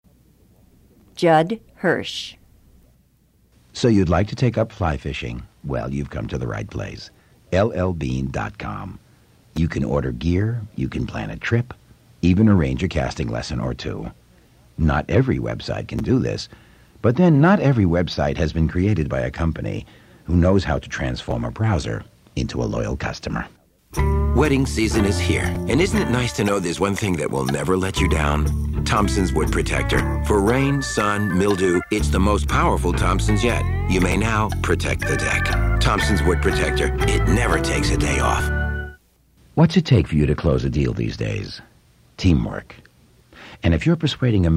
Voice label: Commercial, men